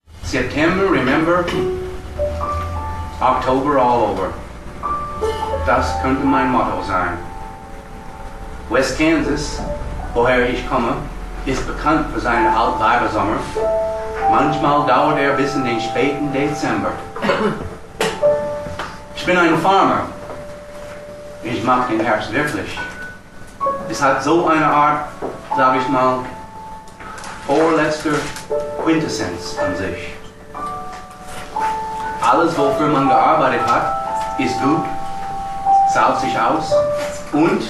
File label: theater; Deutsch mit amerk. Akzent; herbert clutter